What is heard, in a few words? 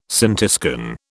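"scintiscan", British English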